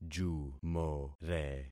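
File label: "jumore", concatenated syllables; Affixing experiment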